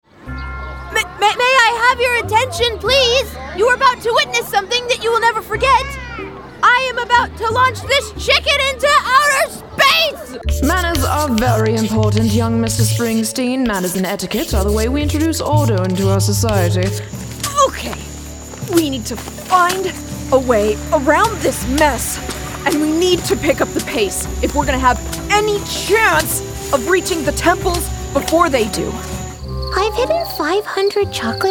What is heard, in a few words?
Character